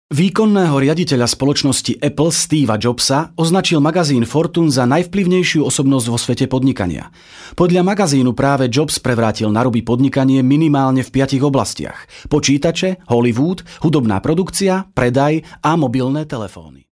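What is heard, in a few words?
Slovak, male, narration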